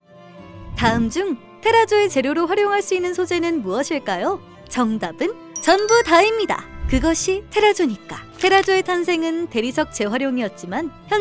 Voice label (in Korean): 내레이션 유튜브 영상 내레이션; 오디오가이드, 전시, 역사